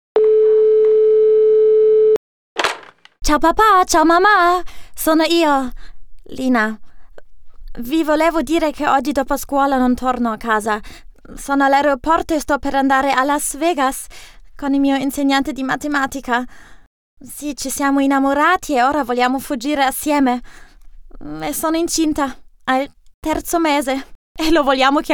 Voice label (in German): anrufbeantworter italienisch; sprachdemo